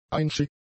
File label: "einschiffend", German; adjectives